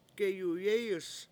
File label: shake it, Washo; geyuyEEyus